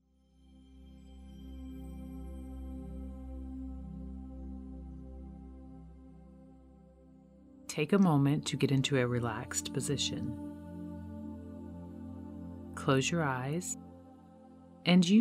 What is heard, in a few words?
Guided Meditation; Connect With Angels Spirit Guides